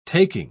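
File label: "taking", British English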